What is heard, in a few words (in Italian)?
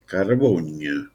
"Carevógne", Manfredoniano; Carevógne s.m. = Foruncolo.